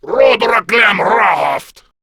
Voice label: Attack, Male